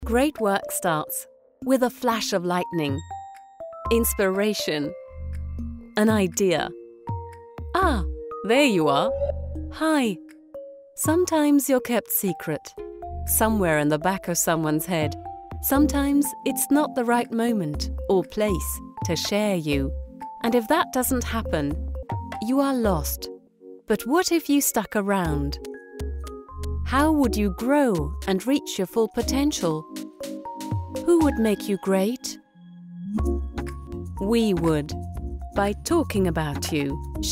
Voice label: EN Image; coffeeNet; LOFT VOICES